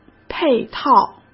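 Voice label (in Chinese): "配套", Mandarin Chinese; hsk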